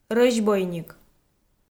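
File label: "рӧзбойник", Komi, female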